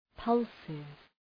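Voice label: "pulses", English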